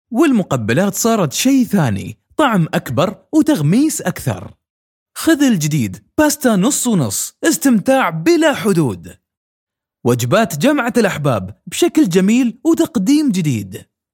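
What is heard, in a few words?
Arabic, Commercial, UAE Accent; GoLocalise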